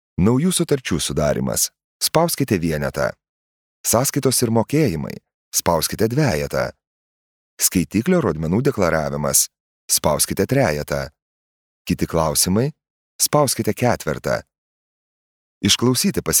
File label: male lithuanian ivr